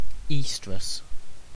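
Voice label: "oestrus", British English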